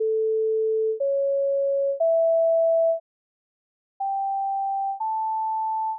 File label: surround 5.1, channel ident